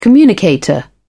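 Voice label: "communicator", British English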